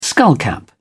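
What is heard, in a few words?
"skullcap", British English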